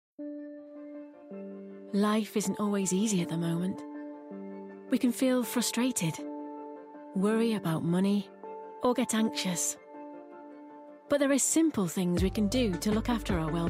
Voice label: english british corporate videos